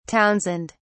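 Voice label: "townsend", British English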